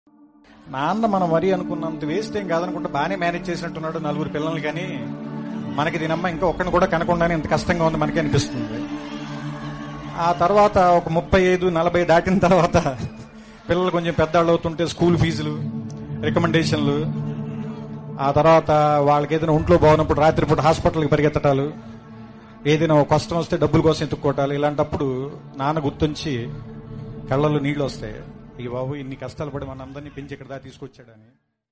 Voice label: Dialogue Telugu

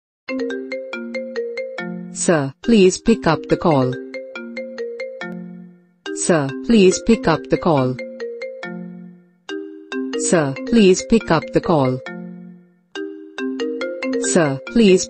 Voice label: sir please pickup the call sir name ringtone; iphone ringtone; apple ringtone

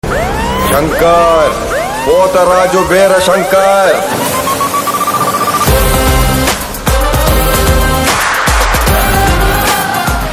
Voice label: Trailer Dialogue Ringtone; Krack; Raviteja

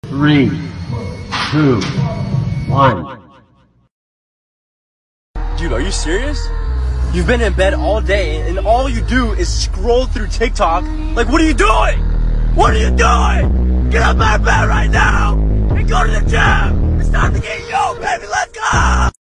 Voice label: gym bro motivation ringtone

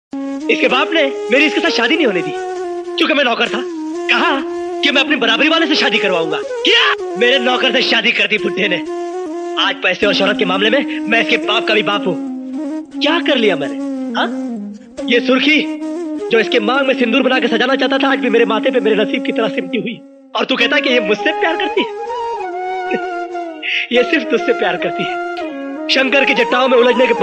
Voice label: emotional dialogue

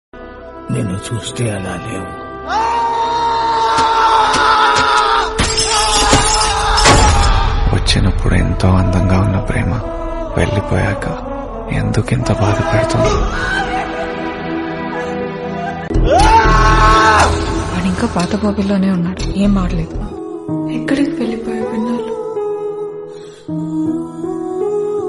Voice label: Telugu Love Breakup Dialogues; Love Failure

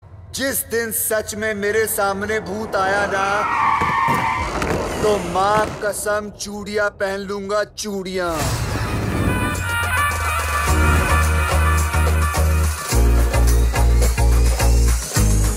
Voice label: Dialogue Ringtone; Laxmmi Bomb; Akshay Kumar; Laxmii

